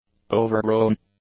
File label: "overgrown", English; adjectives